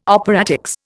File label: "operatics", Indian